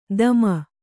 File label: "ಧೂಮ", Kannada